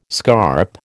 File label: "scarp", American